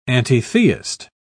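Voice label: "antitheist", British English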